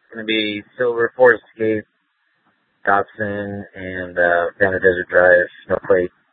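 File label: Police Department Dispatch, scanner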